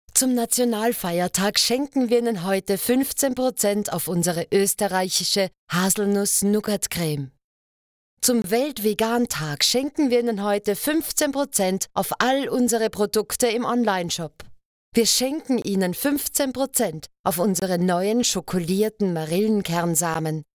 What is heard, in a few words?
German, Female